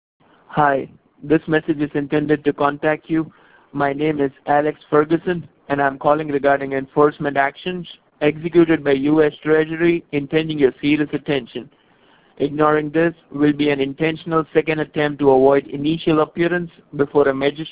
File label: voicemail